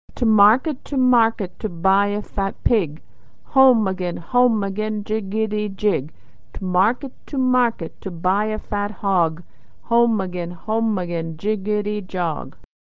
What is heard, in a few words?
to market, American English